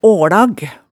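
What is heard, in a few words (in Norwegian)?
"aalag", Hemsedal dialect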